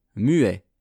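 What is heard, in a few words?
"muet", French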